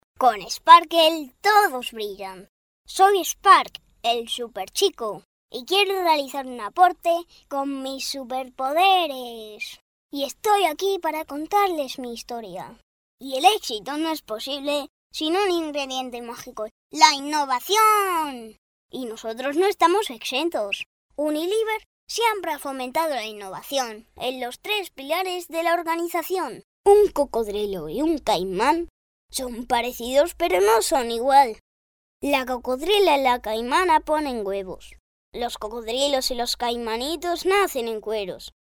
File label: children kid voice Spanish Castilian